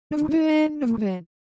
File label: Korean, Ideo Phones; Texture